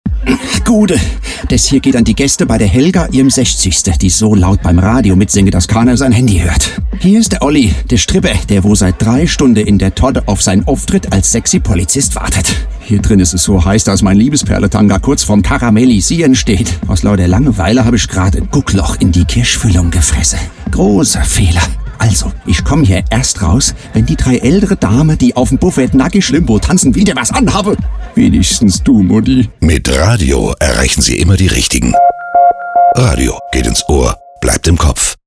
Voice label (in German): werbung; radiozentrale